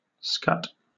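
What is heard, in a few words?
"scut" English, British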